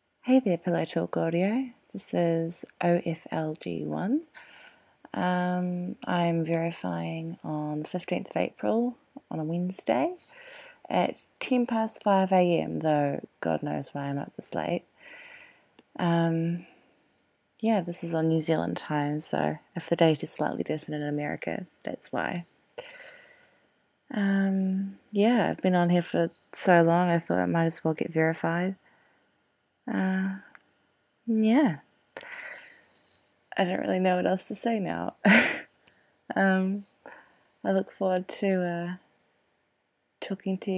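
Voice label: Verification, Female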